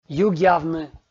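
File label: "югъявны", Komi, male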